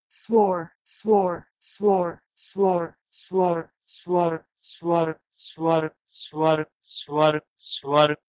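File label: Proto-Indo-European swor to Sanskrit svara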